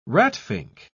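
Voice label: "ratfink", British English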